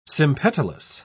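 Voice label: "sympetalous", English, British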